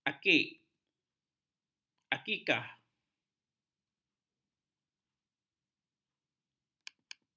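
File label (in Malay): "akik", Malay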